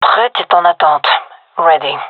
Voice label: in position, gendarmerie fem; vo